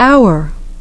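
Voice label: "our", English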